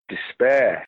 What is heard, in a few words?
Despair